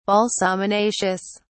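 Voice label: "balsaminaceous", British English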